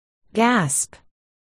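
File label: "gasp", spoken word, articulation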